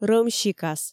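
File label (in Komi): рӧм сикас, Komi, female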